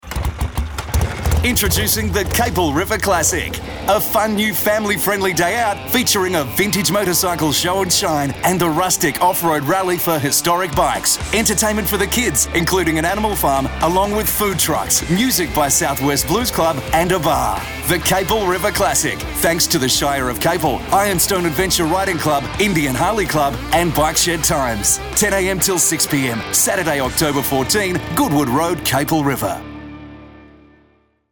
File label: Radio Ad